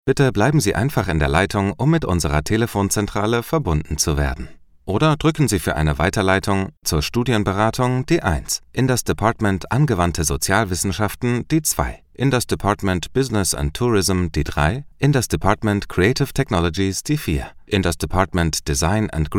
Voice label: telephone ivr